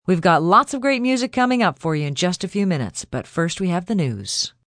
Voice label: Lots Of Music F, Broadcast, announcer, breakaway, female; VoiceBox